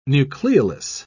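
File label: "nucleolus", British English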